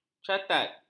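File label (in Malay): "catat", Malay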